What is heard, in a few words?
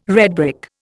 "redbrick", Indian